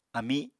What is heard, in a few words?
"ami", Apatani